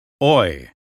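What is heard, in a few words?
American phonetics sound boy; 英语音标发音（剑桥版）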